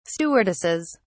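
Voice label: "stewardesses", British English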